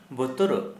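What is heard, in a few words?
Santali, male, frightened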